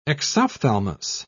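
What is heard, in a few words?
"exophthalmus", British English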